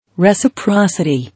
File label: "Reciprocity", English